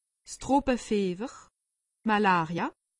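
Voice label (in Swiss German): "malaria", Alsatian; medecine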